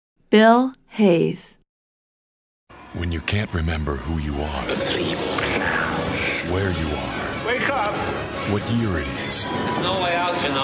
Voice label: promo, male